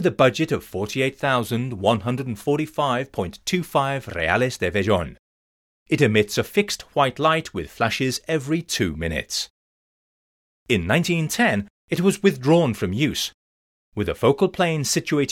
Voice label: lighthouse audioguide; na popia